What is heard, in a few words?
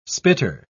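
"spitter", English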